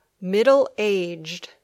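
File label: "middle-aged", English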